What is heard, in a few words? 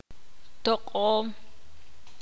ixil; apu alar